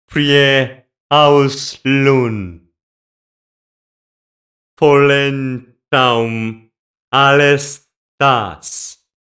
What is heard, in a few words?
cinematic voice; lineage2